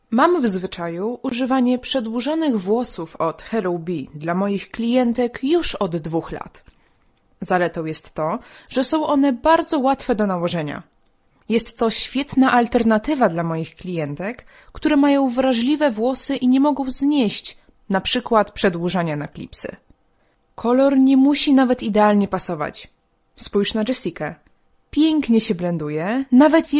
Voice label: 波兰语女, 外语

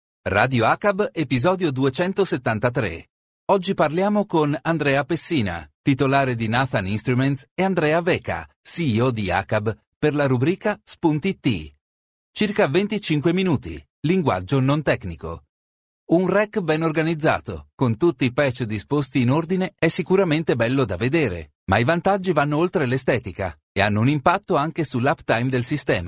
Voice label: 意大利语男 外语 小语种

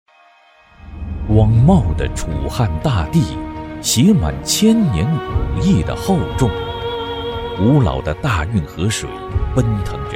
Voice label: 男国 专题 医院 大气; 江苏省医院

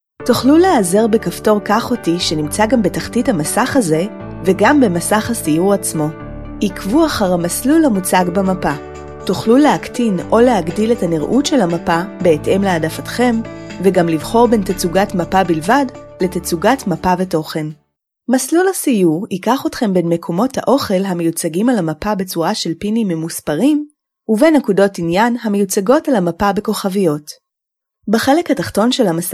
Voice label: 希伯来语女 外语 小语种

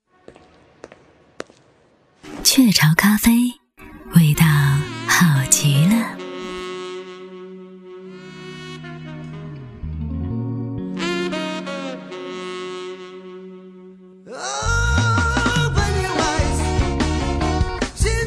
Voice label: 广告 品牌形象 咖啡 磁性

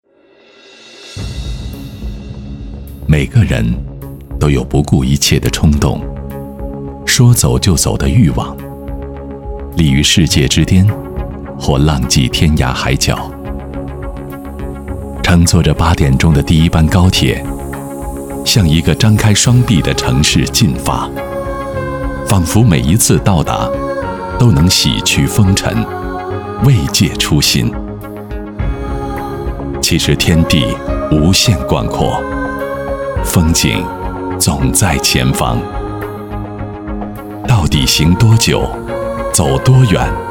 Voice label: 专题 银行 农商银行 磁性, 男国

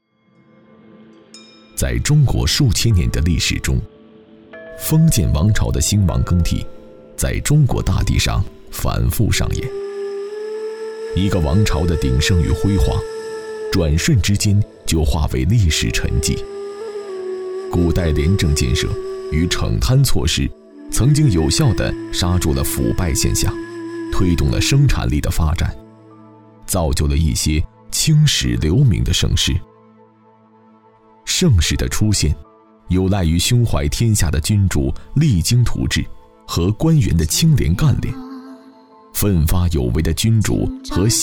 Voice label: 男国 纪录片 人文历史 盛世

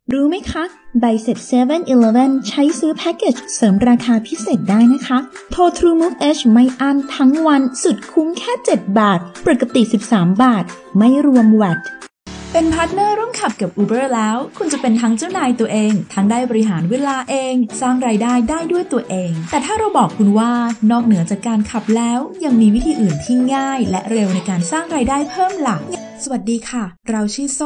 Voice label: 泰语女 外语 小语种

